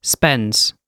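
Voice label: "spends", British English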